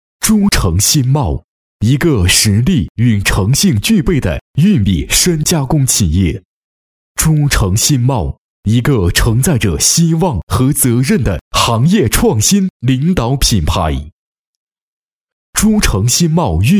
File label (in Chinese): 男, 浑厚磁性, 国语男声配音; 诸城兴贸